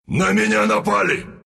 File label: under attack, ru; dota2